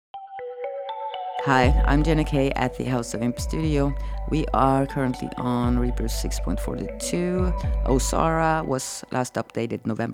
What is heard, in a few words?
voiceover